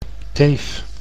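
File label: "teef", Dutch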